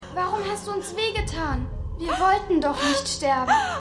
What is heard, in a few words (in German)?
Maedchen; The Outer Limits